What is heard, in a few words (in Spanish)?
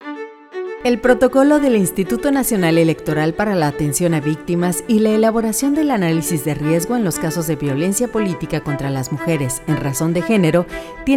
ESPANOL